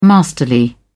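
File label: "masterly", British English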